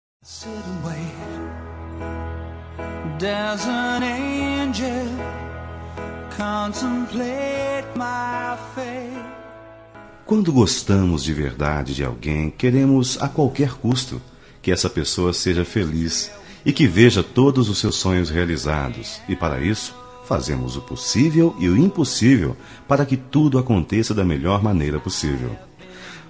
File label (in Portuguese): Romântica, M; Boas Férias